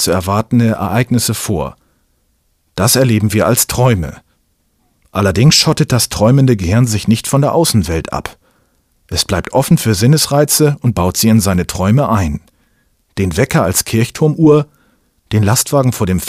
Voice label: doku schlaf

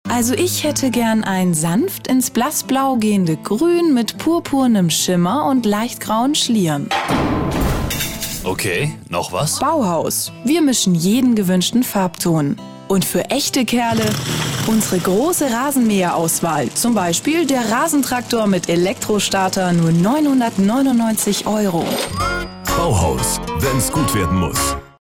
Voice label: werbespot; bauhaus

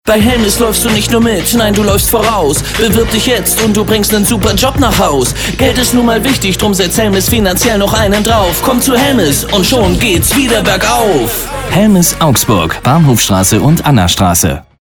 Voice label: radiospot gerappt

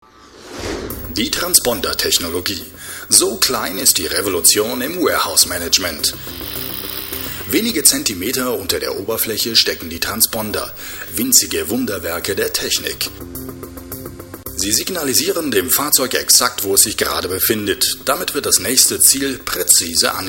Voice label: sprecher imagefilm; jungheinrich

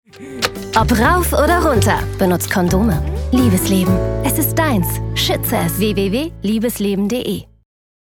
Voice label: werbung aufzug; bzga liebesleben

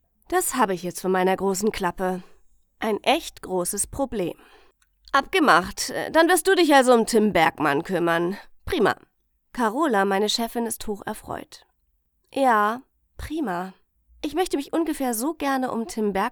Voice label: hoerbuch frauenroman

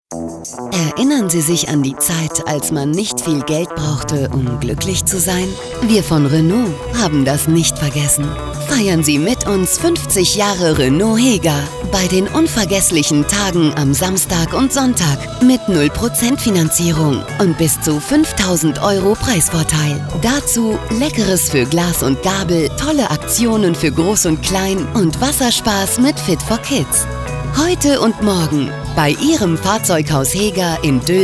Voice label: spot; renault

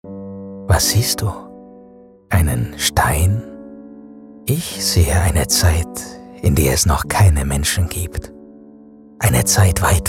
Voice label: imagefilm; zeitreise; Muttersprache Deutsch, Deutsch/Schweizerdeutsch

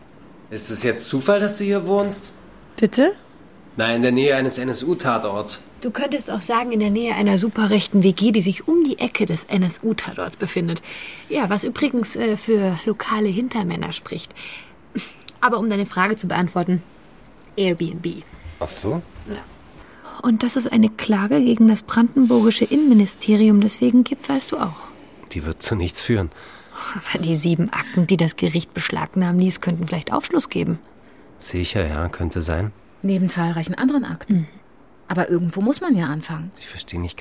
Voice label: hoerspiel; swr

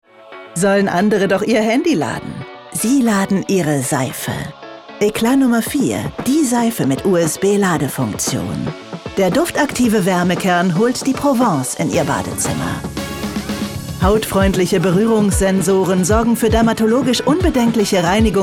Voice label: werbung

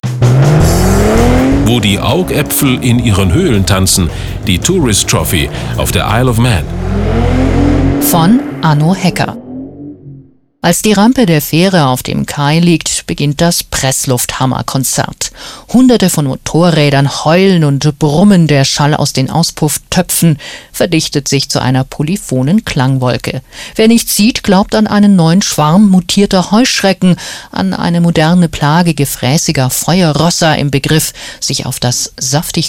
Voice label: Hörbuch Extremsport

